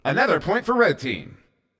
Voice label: Point Red, CTF Announcer

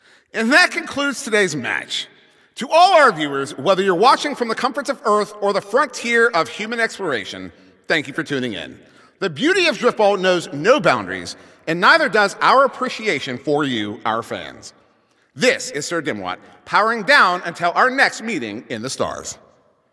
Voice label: Announcer Game Ends

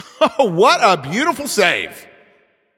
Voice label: Announcer Goalie Save

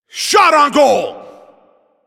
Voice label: Shot On Goal, Announcer

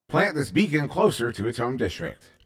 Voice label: Too Far From District, Announcer

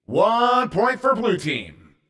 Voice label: Point Blue, Announcer

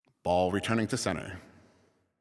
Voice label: Announcer Waiting For Players After Score

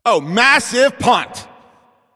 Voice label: Announcer Long Hit To Other Side

